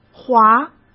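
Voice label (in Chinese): "滑" Mandarin Chinese; hsk; chinese-flashcards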